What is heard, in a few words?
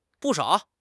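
"bu4 shao3", Mandarin Chinese; HSK1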